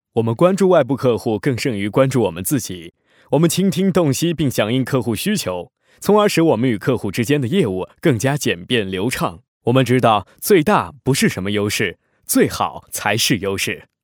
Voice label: male chinese voice over character; mandarin chinese